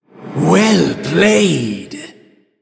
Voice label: Male Human Well Played